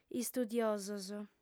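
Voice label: "istudiosos", female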